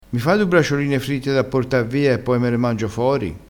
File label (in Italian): "braciolina", vocabolario dialettale